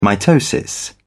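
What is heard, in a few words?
"mitosis", British English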